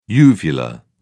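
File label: "uvular", British English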